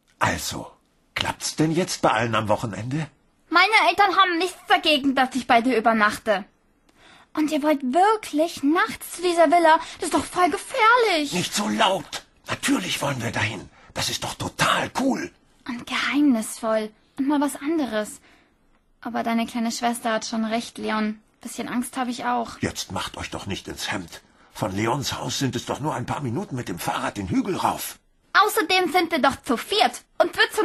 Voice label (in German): Hoerspiel Geistervilla, frauen